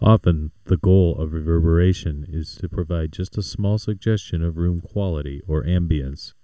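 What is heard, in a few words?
Speech (mono), 0.7 milliseconds delay, 100 %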